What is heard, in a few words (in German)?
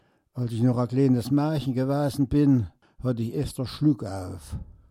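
Thüringisch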